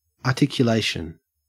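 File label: "articulation" English, Australian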